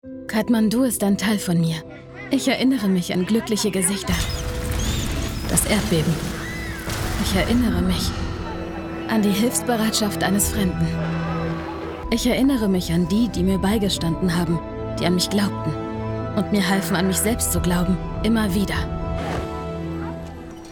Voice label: TV Spot; AXA; Kathmandu